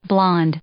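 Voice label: "blonde", English; adjective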